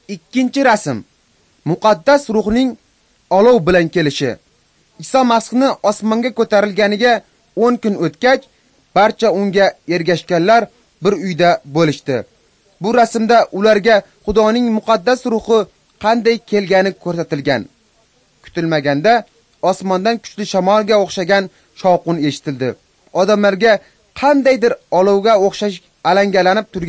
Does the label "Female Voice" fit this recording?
No